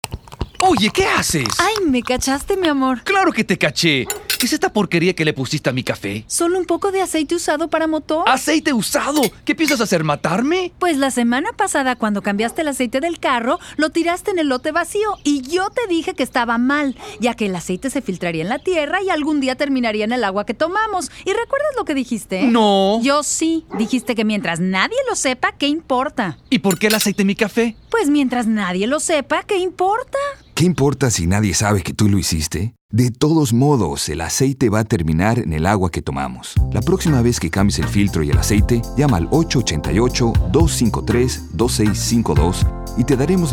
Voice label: spanish; coffee